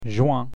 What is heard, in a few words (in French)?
Jun, French, Months; June; FrenchTutor